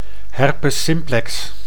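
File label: "herpes simplex", Dutch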